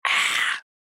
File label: Scary Whisper; soundscrate